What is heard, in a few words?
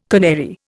"canary", Indian